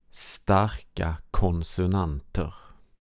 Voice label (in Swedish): starka konsonanter